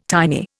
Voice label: "tiny", Indian